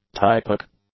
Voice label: "typic", English; adjectives

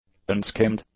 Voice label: "unskimmed", english; adjectives

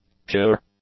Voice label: "pure", english; adjectives

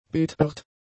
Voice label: "betört", German; adjectives

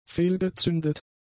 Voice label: "fehlgezündet", german; adjectives

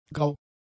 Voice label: "grau", German; adjectives

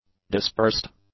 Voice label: "dispersed", English; adjectives

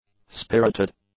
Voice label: "spirited", english; adjectives

